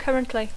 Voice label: "currently", English